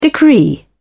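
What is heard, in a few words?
"decree", British English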